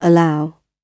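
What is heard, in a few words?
"allow", British English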